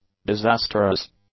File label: "disasterous", English; adjectives